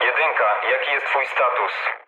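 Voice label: Polish chat; War Thunder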